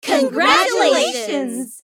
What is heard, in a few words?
Female crowd congratulations